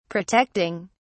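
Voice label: "protecting", British English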